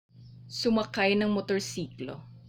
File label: Tagalog